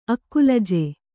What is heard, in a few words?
"ಅಕ್ಕುಲಜ", Kannada